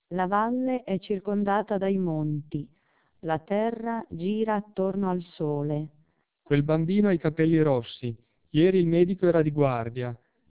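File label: speech TWELP 2400 bps, Japanese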